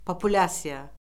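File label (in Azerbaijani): "populyasiya", Azerbaijani; Orfoqrafiya Lüğəti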